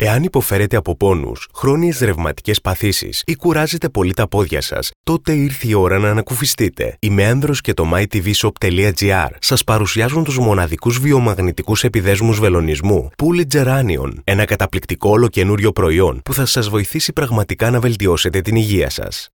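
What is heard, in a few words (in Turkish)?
Yunanca Erkek, Greek male voice; yunanca seslendirme; erkek sesler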